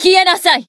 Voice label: Voice attack, jp; herena